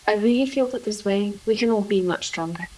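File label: voice acting, es, female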